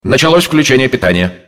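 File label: Announcer wake up power up, Russian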